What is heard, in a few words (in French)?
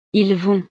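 "ils vont", French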